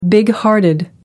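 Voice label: "bighearted", British English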